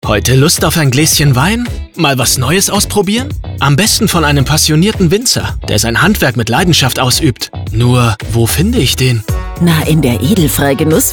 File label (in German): Radio Spot; Edelfrei GenussVinothek Bamberg; LOFT VOICES